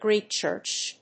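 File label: "Greek Church", English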